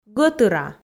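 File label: "гӧтыра", Komi, female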